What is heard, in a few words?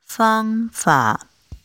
chinese pronunciation f h